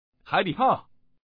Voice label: haidipao, changsha man; mahjongwuqing

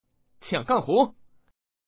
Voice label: qiangganghu, changsha man; mahjongjinghai

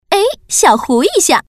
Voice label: xiaohu, putonghua woman; mahjong paohuzi

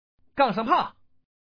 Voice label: gangshangpao, changsha man; mahjongjinghai

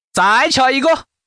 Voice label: chi, changsha man; mahjong paohuzi

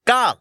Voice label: "gang", man

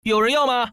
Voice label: chupai, putonghua, man; mahjong paohuzi

